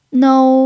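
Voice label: "nou" first tone, chinese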